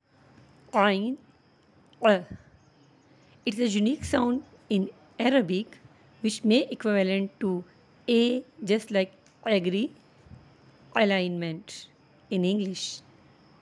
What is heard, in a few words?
"ain", Arabic; ع; Like AA; Aiin